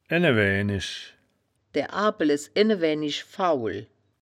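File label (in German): "ene waeaenisch", wittlicher; enewäänisch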